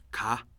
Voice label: "snow", Kham